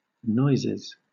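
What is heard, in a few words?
"noises", English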